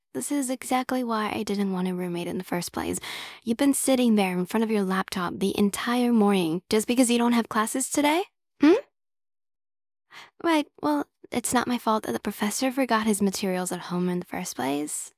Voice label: calm girl